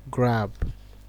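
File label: "grab" Nigerian Pidgin, male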